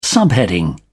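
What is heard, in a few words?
"subheading", British English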